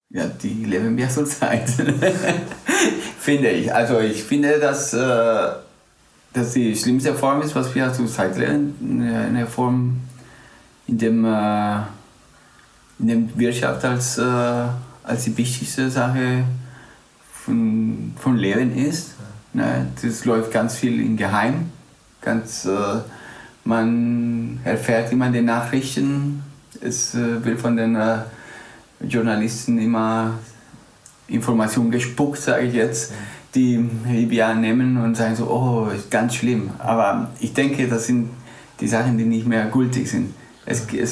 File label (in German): spanisch guatemala